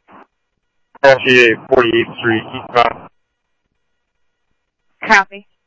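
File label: Sheriff West County, scanner audio trunk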